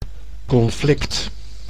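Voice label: "conflict", Dutch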